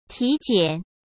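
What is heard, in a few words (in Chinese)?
"体检", Mandarin Chinese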